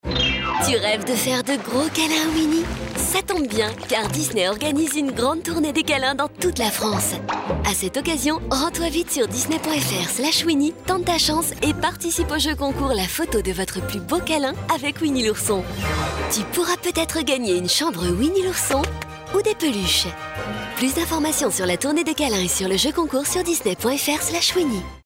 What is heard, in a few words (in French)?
amicale souriante; TOURNEE DES CALINS